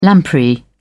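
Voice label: "lamprey", British English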